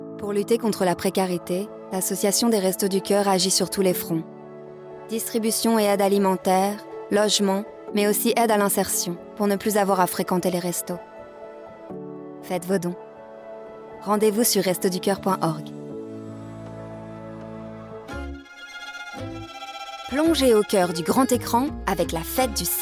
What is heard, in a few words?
French; home studios